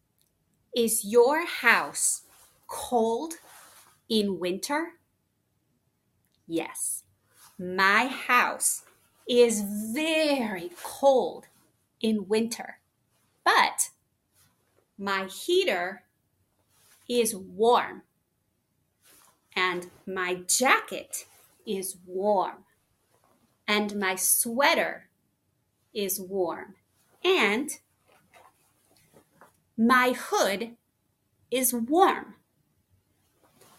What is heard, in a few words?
conversation with accent from the USA; “Is your house cold in winter?”; Temperature, Weather, Seasons; Elllo